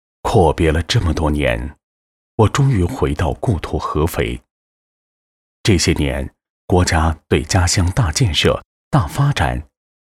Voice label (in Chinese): 男 干音 自白, 国语男声配音; 蓝鼎集团